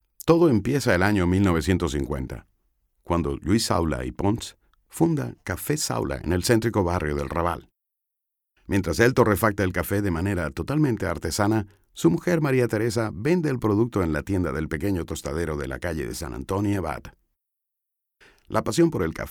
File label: male latam venezuelan comercial; ideasonora